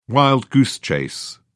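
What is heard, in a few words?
"wild goose chase", British English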